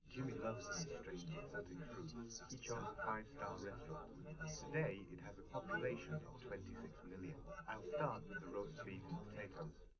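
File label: male3 live recording 130ms 1m, underdetermined; SiSEC11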